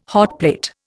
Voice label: "hotplate", Indian

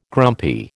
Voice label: "grumpy", American

